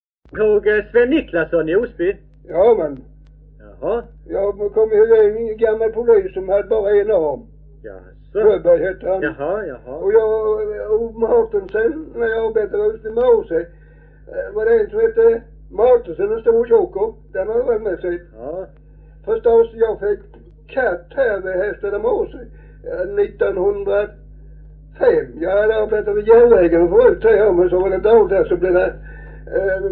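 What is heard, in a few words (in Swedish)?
Farstorp Skåne, dialekter; Folkminnen; Svenska sagor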